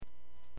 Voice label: Aviation 121.5 Emergency